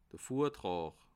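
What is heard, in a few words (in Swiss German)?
"vortraa", Alsatian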